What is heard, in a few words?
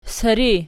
"siri", Archi